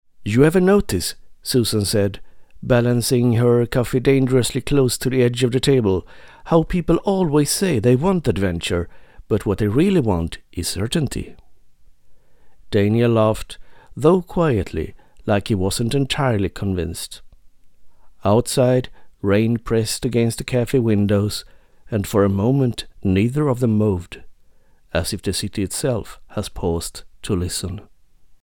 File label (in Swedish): Ljudbok, engelska, modern karaktärsdriven